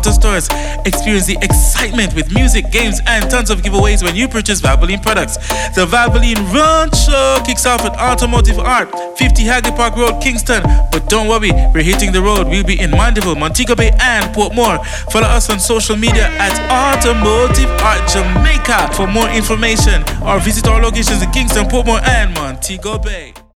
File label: TOWN CRY